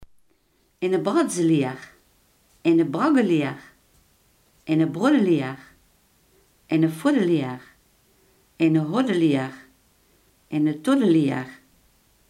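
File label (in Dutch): iemand die knoeiwerk levert, Limburgse gesproken woordenboeken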